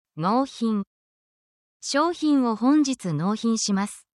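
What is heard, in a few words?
Japanese word, essential Japanese phrases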